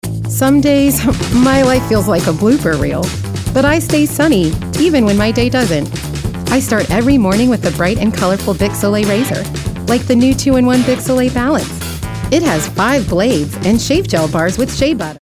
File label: Perky; Razors